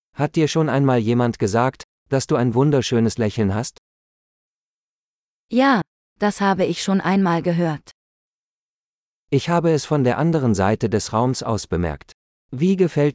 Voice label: Flirting - Dialogue, German; learn essential phrases; small talk; de-en

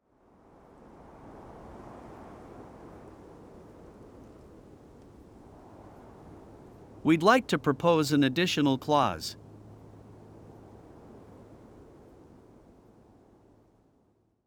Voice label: Business Negotiations Relax Wind Phrases

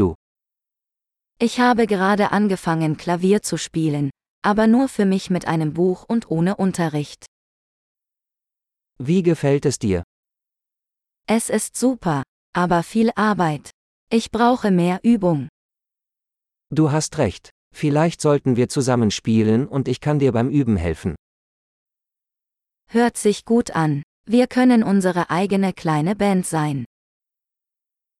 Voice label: Playing an Instrument - Dialogue, German; de-en

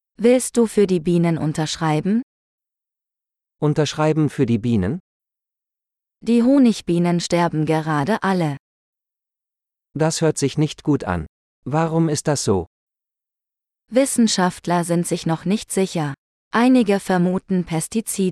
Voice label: Biodiversity - Dialogue, German; sustainability and climate change; de-en

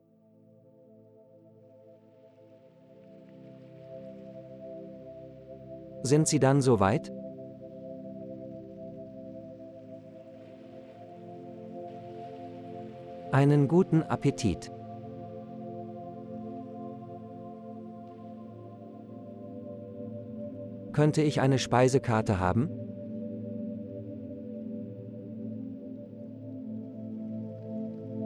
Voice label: At the Restaurant Relax Dialogue; de-en

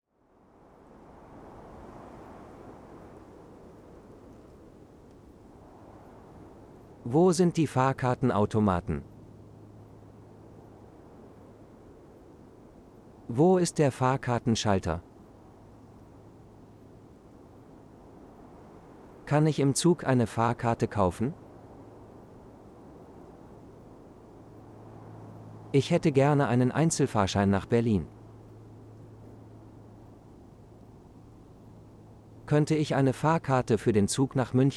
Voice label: Relax Wind Phrases, de-en; At the Train Station

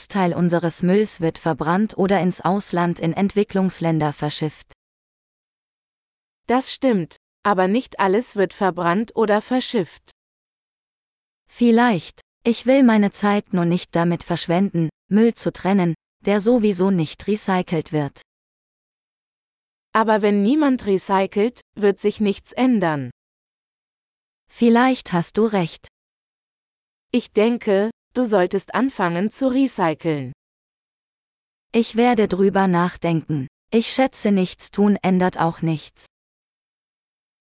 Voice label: Recycling - Dialogue, German; learn-german; topic-course-sustainability-and-climate-change

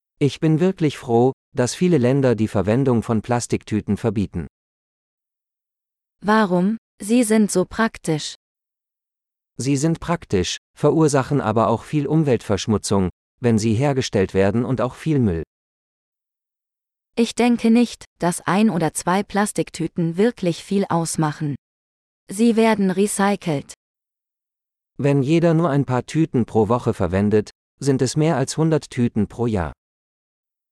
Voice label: Plastic Waste - Dialogue, German; learn-german; topic-course-sustainability-and-climate-change

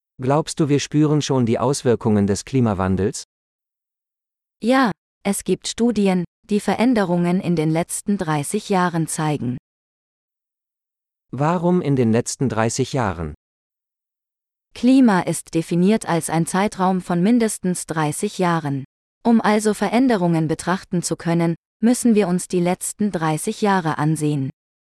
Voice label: Effects of Climate Change - Dialogue, German; sustainability and climate change; de-en

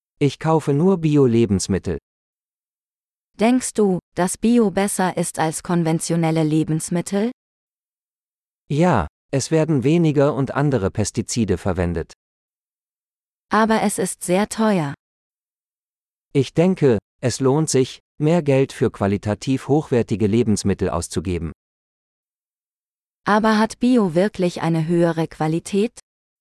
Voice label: Organic Food - Dialogue, German; learn-german; topic-course-sustainability-and-climate-change

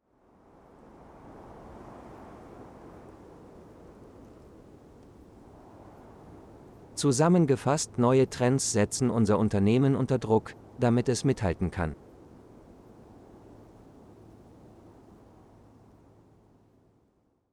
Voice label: Relax Wind Phrases, de-en; Giving a Business Presentation

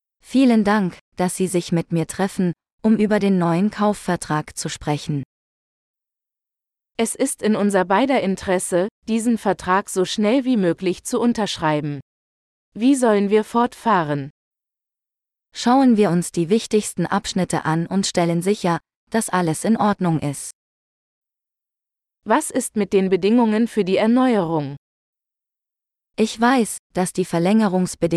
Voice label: Negotiating Business Contracts - Dialogue, German; de-en

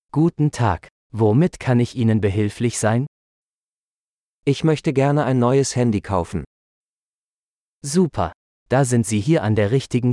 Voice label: Buying a Phone - Dialogue, German; conversations-advanced-learner

